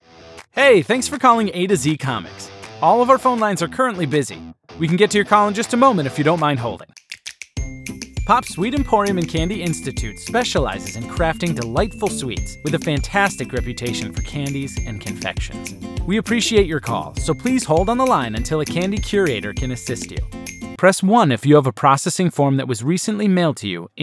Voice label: narr